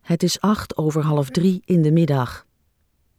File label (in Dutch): sprekende klok geluid